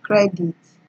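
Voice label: "credit", Nigerian Pidgin, female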